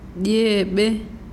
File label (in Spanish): lluvia, mazahua